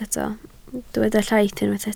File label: "llaith", Welsh